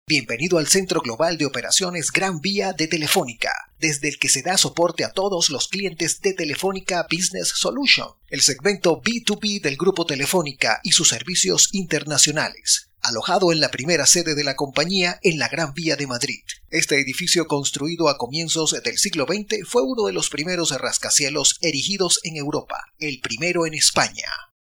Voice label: locutor mexicano mexican voiceover; locutor de Méjico

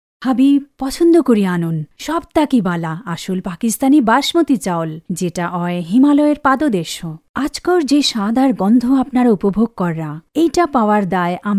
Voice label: locutora bengali bangladeshi female voiceover

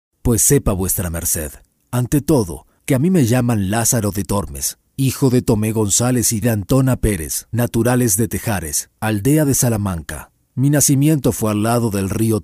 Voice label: locutor mexicano mexican voiceovers

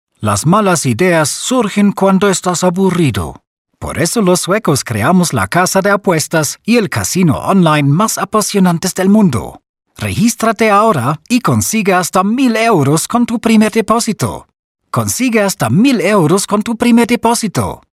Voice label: locutor voiceover swedish imitation; Imitación de voz sueca para spot

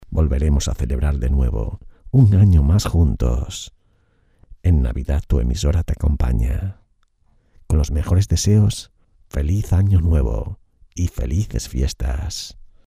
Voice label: jingle navidad spanish christmas voiceover

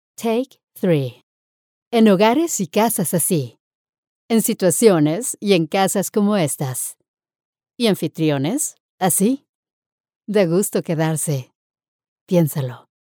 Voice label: locutora mexicana mexican voiceover

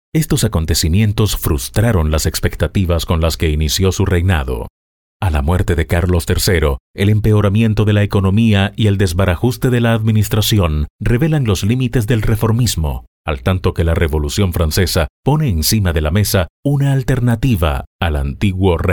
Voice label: locutor panama panamanian voiceover

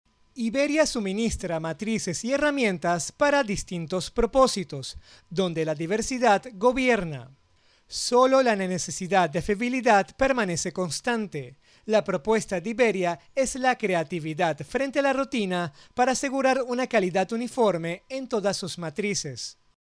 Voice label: locutor venezolano venezuelan voiceover; locutor de Venezuela

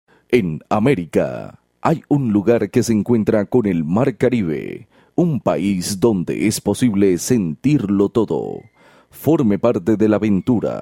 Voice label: locutor venezuela venezuelan voiceover

